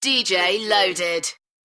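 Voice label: UK female, DJ vocals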